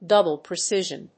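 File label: "double precision", English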